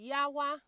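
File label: "yawa", Nigerian Pidgin, female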